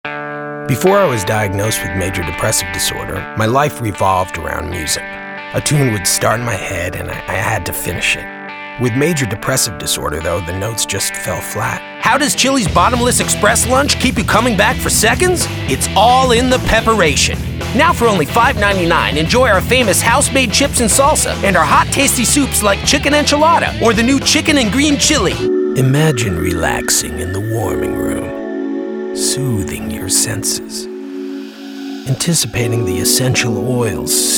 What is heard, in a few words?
Commercial